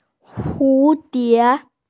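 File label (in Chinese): "hu2die2", Mandarin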